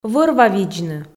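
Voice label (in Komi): вӧр-ва видзны, Komi, female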